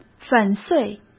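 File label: "粉碎", Mandarin Chinese; chinese flashcards; hsk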